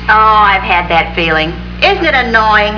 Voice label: annoying